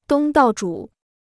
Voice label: "东道主", Chinese

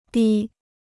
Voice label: "低", Chinese

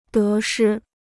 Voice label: "得失", Chinese

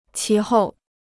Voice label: "其后", Chinese

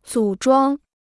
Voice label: "组装", Chinese

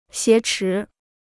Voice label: "挟持", Chinese

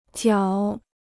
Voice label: "绞", Chinese

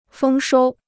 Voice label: "丰收", Chinese

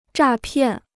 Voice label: "诈骗", Chinese

